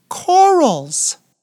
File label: "corals", English